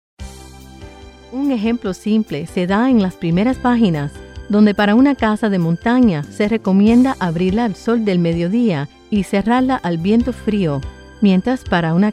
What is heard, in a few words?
Spanish Industrial